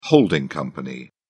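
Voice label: "holding company", British English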